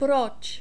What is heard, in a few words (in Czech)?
proc, czech